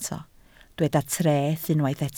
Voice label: "treth", Welsh